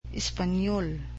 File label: "Spanish", cebuano